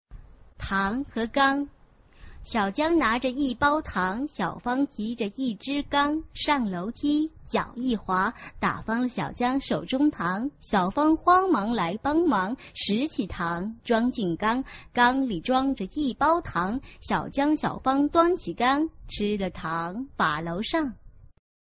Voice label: chinese tongue twister